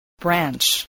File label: "branch", spelling